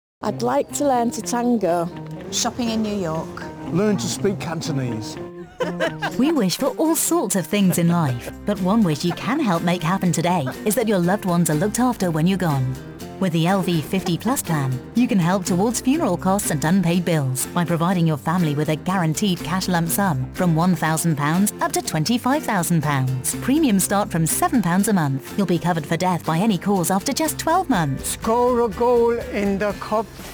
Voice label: DRTV advertisement